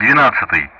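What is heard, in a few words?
Speech Russian; DCS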